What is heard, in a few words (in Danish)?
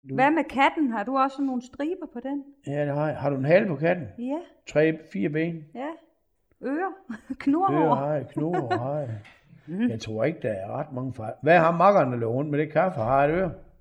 NYB dialekt aar